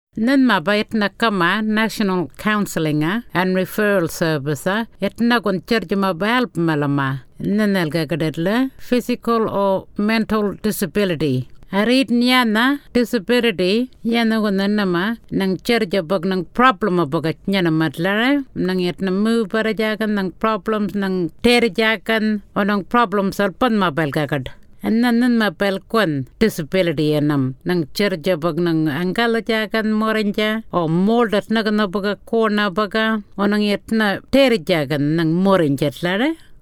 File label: arrernte